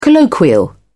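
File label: "colloquial", British English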